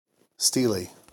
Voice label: "stele", en